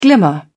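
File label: "glimmer", British English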